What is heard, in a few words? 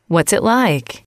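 what is it like, female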